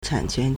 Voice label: "chan3quan2", Chinese